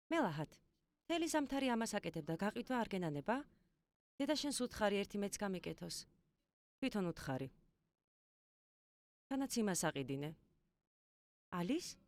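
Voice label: georgian female narration; voice demos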